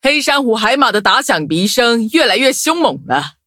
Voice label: level up, zh-hant